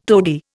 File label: "tody", Indian